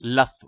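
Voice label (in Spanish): "lazo", Spanish; ktuberling; tdegames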